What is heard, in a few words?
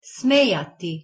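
"smejati", Bosnian Croatian Montenegrin Serbian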